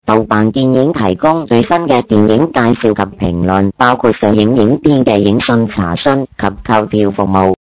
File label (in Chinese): 粤语